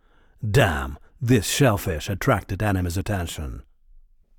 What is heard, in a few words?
Voice Monolog; We Are the Dwarves